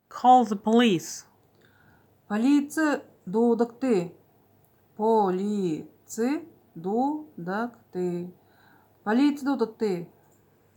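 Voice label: Call the police, Buryat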